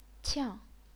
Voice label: "qiang4", chinese pinyin